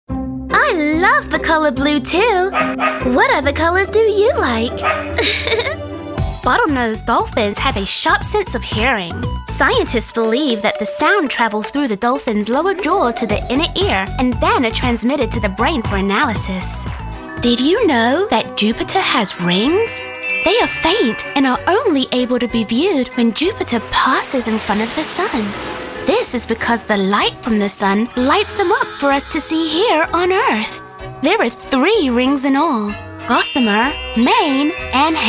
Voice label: Narration